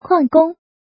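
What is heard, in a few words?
"Kuànggōng", Chinese; Tu vung nghi phep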